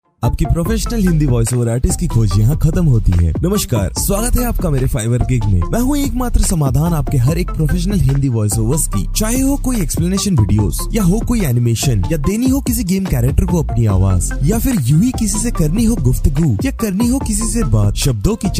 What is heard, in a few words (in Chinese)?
印地语男声